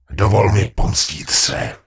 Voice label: Gnome Male Help; wowpreklad; czwow; GnomeVocalMale